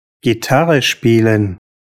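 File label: "gitarre spielen", German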